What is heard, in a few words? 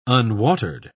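"unwatered", English, British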